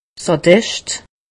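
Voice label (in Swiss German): "dokterattest", Alsatian; medecine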